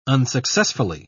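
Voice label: "unsuccessfully", British English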